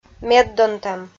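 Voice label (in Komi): "меддонтӧм", Komi, female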